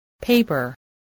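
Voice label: "paper", spoken word, spelling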